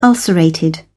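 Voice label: "ulcerated", British English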